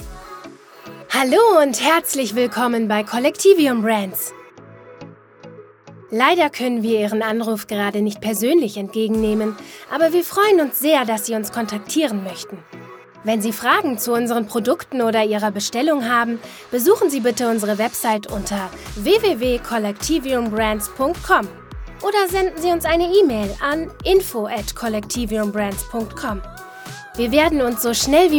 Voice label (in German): Telefonansage frisch